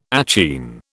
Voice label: "achene", British